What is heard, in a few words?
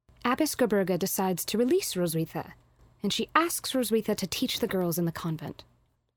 Narration